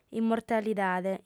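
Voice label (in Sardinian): "immortalidade", female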